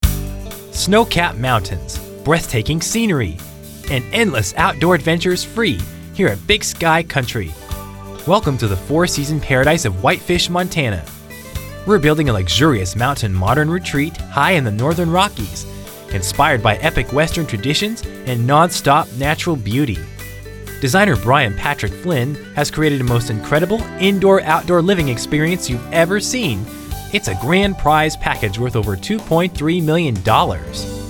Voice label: Commercial; HGTV